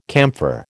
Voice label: "camphor", American

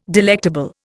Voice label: "delectable", Indian

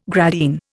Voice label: "gradine", Indian

